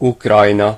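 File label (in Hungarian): "ukraine", Hungarian; gcompris; geography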